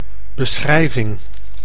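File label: "beschrijving", Dutch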